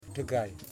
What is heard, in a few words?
"Nose", Yimas